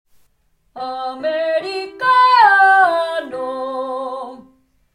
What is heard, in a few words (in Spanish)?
Slime Americano